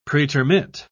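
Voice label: "pretermit", British English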